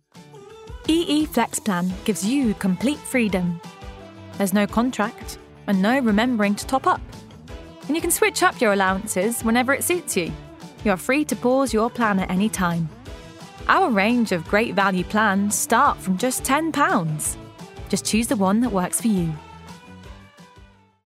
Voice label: Corporate